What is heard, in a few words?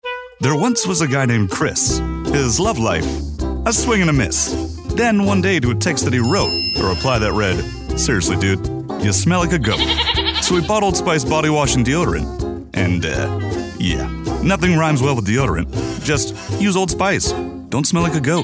Commercial